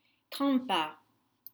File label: "trempa", French